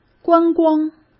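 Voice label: "观光", Mandarin Chinese; chinese flashcards; hsk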